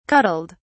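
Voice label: "cuddled", British English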